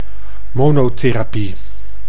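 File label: "monotherapie", Dutch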